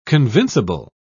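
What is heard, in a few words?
"convincible", British English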